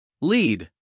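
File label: "lead", American English, male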